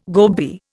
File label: "gobby", Indian